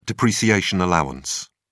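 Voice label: "depreciation allowance", English, British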